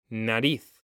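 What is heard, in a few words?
"nariz", Spanish; mekanta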